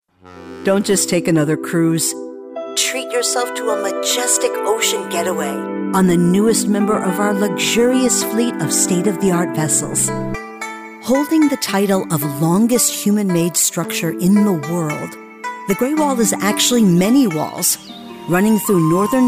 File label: commercial